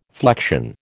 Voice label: "flection", American